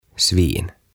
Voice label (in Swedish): "Svin" Siat